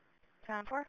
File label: Police Sheriff Dispatch